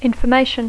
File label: "information", English